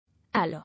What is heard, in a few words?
"Allah", English